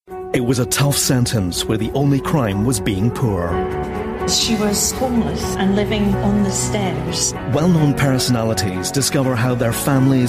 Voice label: promo